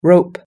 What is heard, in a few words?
"rope", US English